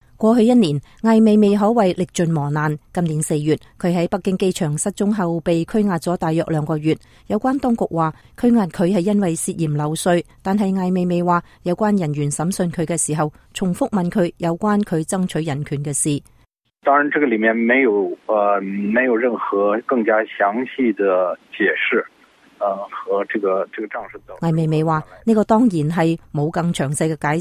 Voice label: cantonese